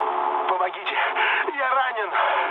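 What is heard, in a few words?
Russian damaged pilot mood low, speech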